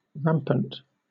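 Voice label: "rampant", British English; pronunciations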